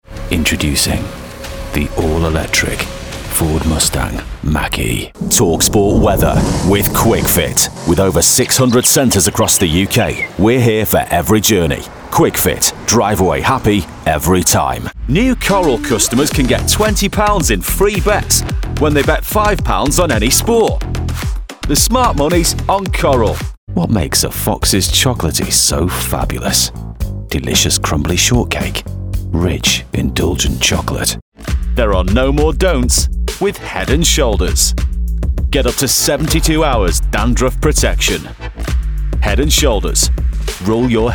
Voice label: Commercial, northern